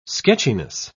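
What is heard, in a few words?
"sketchiness", British English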